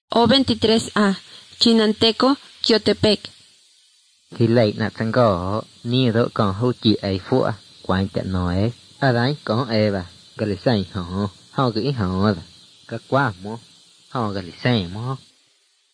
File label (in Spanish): spanish mexico